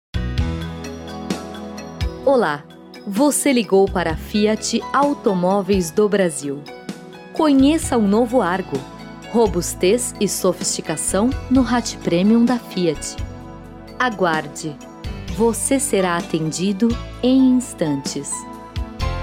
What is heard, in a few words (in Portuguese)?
mensagem de espera voz feminina; fiat